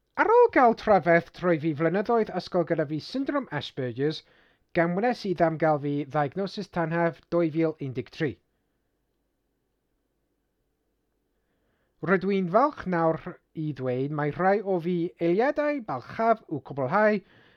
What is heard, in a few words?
Welsh Voice Over Sample